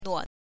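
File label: "nuo", Mandarin Chinese, neutral tone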